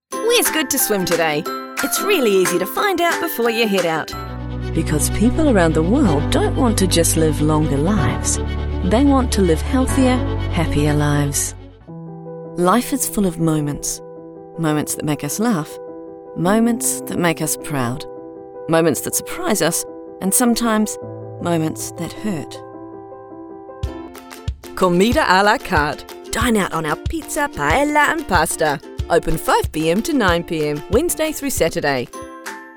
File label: commercial; New Zealand professional female voice over actor